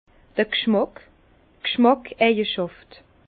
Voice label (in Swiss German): "gschmack", Bas Rhin; vin; saveur un arôme